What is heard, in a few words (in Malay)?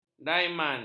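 "daman", Malay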